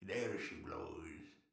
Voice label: pirate voice; netent